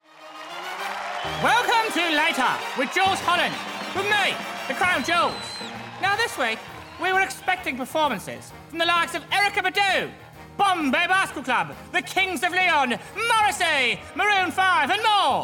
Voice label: Character Reel

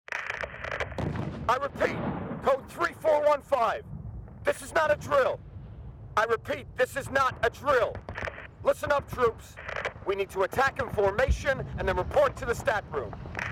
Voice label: Character

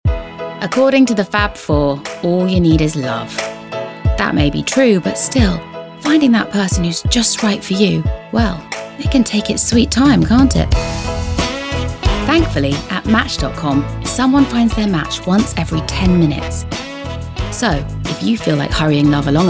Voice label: Commercial; Natural Accents: London, Neutral

